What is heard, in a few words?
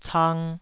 "cang2", taiwanese